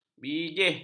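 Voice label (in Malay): "bijih", Malay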